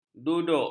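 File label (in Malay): "duduk", Malay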